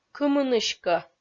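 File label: "кымыныськӧ", Komi, female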